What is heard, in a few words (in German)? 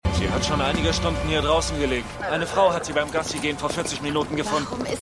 Polizist, Stimmproben; LO SVU